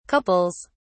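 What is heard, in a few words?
"couples", British English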